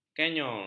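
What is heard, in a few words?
"kanyor", Malay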